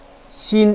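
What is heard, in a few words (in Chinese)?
"xin", Chinese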